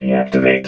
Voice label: "deactivate", robot vox